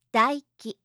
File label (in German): "daiki", japanische maedchennamen